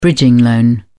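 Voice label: "bridging loan", English, British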